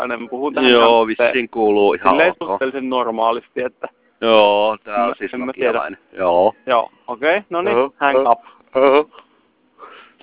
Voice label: call quality, nokia; openmoko